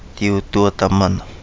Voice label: "Di Udua Damana", Kapingamarangi